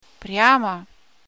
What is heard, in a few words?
"Straight" In Russian